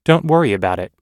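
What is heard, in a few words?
everyday apologizing, American English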